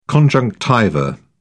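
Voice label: "conjunctiva", British English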